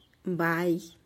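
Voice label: "bai", shawl, Tlacochahuaya Zapotec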